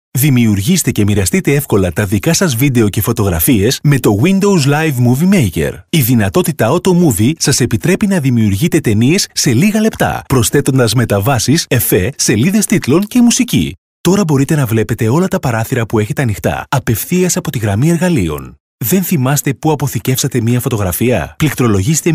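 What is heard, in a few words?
Greek, male, commercial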